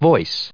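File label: "voice", English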